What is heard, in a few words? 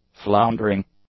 "floundering", english